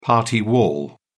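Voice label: "party wall", British English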